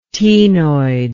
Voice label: "ctenoid", english

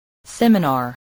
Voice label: "seminar", english spelling

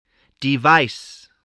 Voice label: "device", english spelling

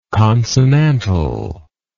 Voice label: "consonantal", English

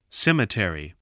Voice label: "cemetery", spelling

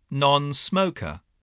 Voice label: "nonsmoker", voice

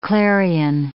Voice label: "clarion", English

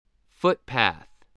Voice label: "footpath", English voice, spelling

